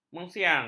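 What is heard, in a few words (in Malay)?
"mensiang", Malay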